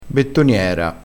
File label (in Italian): "bettoniera", vocabolario dialettale